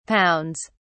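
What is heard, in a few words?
"pounds", British English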